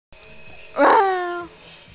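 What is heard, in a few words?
"shang4", Mandarin Chinese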